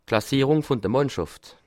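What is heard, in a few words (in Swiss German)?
"mannschaft", Bas Rhin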